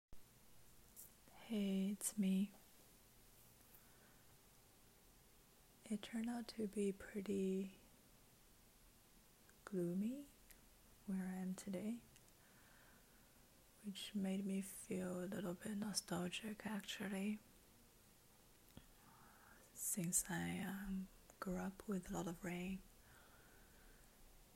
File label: Rainy day rambles and Chinese poem reading for your bedtime sleep; F4A